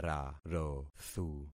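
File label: "rarothu", concatenated syllables; Affixing experiment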